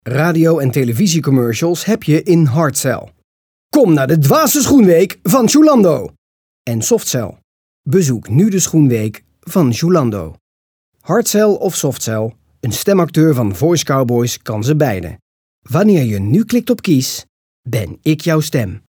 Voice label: RTV Commercial

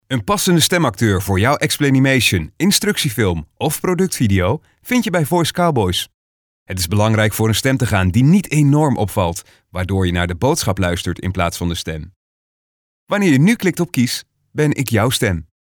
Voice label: explanimation

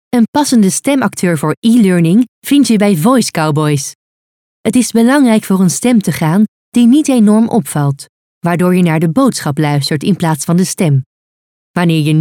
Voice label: E-learning

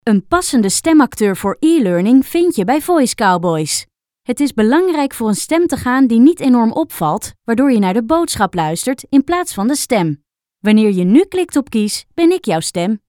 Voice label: ELEARNING

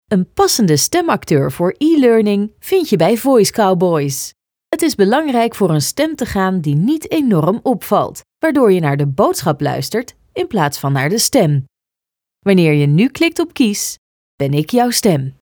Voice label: ELEARNING

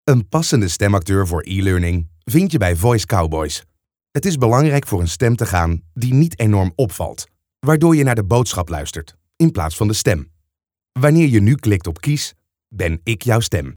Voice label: ELEARNING

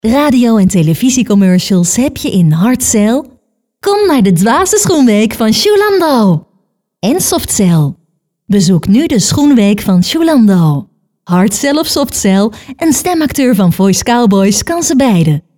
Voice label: commercial; voicecowboys

